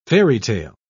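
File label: "fairytale", British English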